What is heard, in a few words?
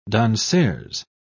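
"danseuse", British English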